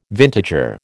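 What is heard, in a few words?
"vintager", American English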